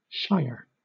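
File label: "shire", British English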